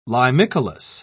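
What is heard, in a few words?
"limicolous", British English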